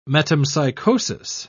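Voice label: "metempsychosis", English, British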